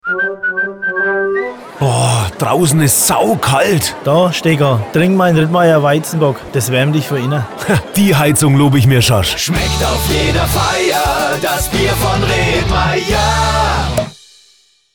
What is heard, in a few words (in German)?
Dialogspot Jingle Abmischung; Rittmayer